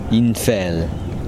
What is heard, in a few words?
river, Kosraean